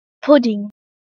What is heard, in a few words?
"PUDDING", English